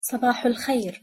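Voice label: "Sabahou Al Khair", Arabic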